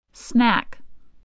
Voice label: "snack", English voice

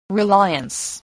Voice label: "reliance", spelling voice

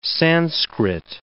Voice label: "Sanskrit", English

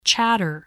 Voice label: "chatter", spelling

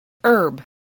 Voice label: "herb", English, spelling

